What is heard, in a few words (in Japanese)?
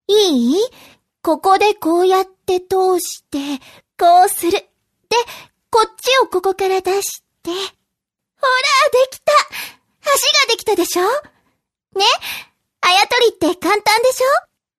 f honwaka; seikaku